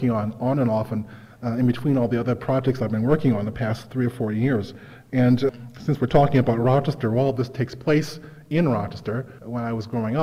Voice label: reading